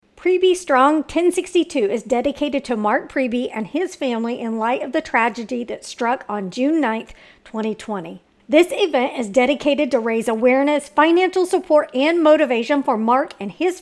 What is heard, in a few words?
Promo; Priebe Strong 2020